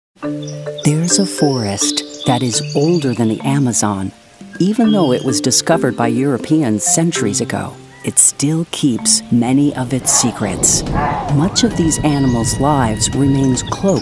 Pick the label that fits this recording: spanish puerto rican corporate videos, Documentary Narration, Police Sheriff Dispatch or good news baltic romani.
Documentary Narration